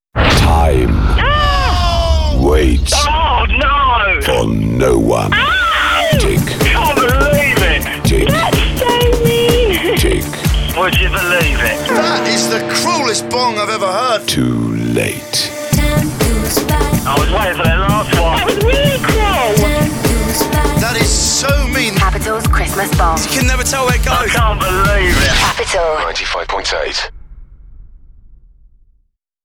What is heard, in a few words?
PROMO; BONG GAME LOSER